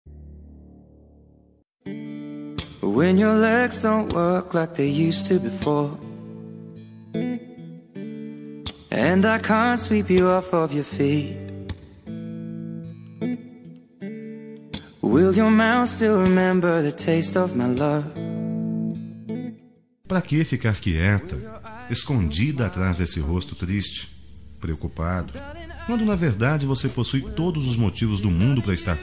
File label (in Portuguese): otimismo masc, Telemensagem de Otimismo Romântica – Voz Masculina